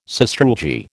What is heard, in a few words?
"sastrugi", American